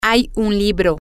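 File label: Spanish, there is a book, female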